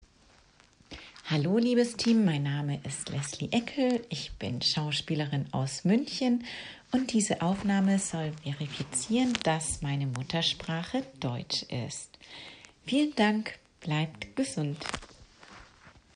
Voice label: German standard native speaker